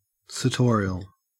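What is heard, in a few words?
"sartorial", Australian English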